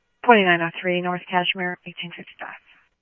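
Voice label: Lakes Sheriff Lake Patrol, scanner audio trunk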